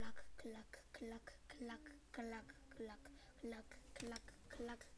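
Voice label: "clock", spoken word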